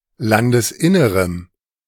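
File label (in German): "Landesinnerem", German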